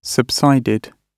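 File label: "subsided", British English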